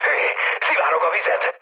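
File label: Hungarian damaged water mood med, speech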